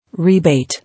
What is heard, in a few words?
"Rebate", English